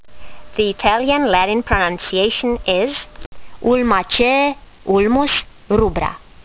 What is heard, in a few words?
latin; Ulmus rubra